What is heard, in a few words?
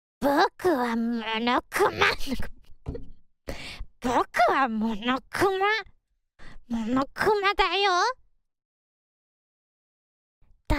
Monokuma impression